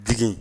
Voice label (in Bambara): "jigin", Bambara